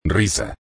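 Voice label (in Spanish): "risa", Spanish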